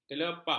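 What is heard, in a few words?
"kelepak", Malay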